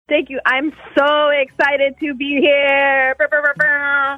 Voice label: saying thank you i'm so excited to be here making air horn sounds